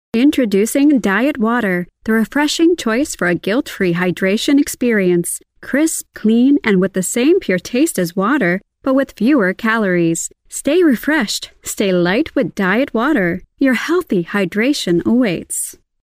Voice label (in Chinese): 美式女声 干音